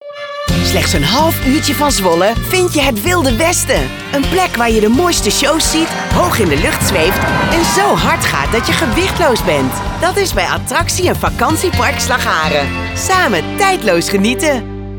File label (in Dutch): Slagharen Commercial Radio